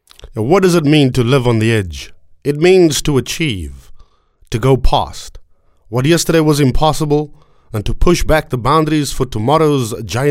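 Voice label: english south african male commercial; voice demos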